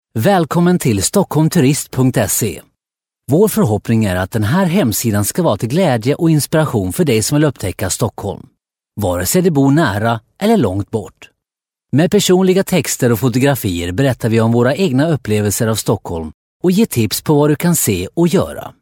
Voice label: M Swedish E-Learning